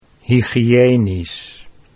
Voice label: "hygiënisch", Dutch